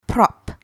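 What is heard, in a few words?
"prop", English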